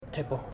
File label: "three", Ipili